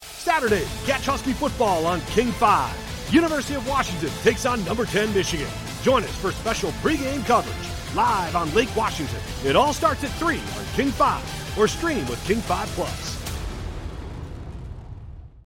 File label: promo